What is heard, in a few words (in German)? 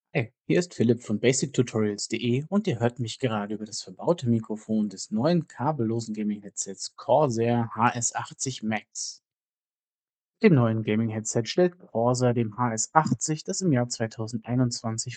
hs80 max mikrofontest